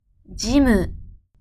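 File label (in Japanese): "ジム", Japanese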